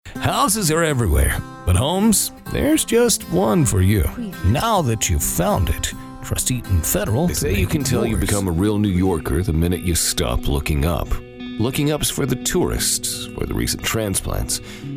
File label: Commercial